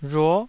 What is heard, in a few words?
"rhuo9", taiwanese